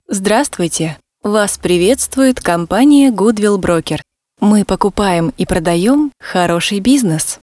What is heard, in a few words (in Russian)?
автоответчик; Goodwill Broker